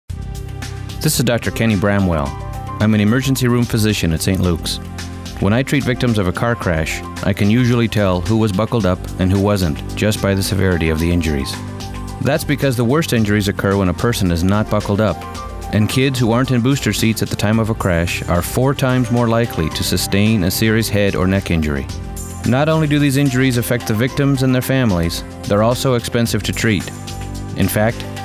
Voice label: Adult Child Safety Belt PSA Radio; ITD